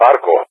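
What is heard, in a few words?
"Arco", English, GREECE; CallSign; Allied Flight; DCS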